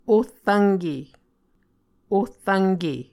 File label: "ohrvnke", Muscogee